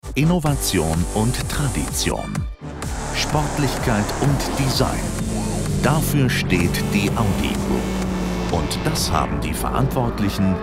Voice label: TV Commercials General public, German voice-over, Persuasive and Dynamic; Audi